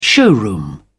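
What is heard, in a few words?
"showroom", British English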